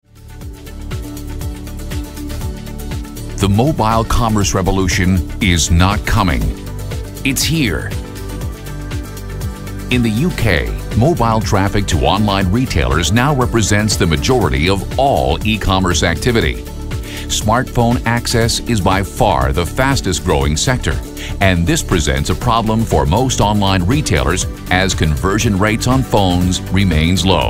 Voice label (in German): Imagefilme Profi Publikum; NATIVE PROFISPRECHER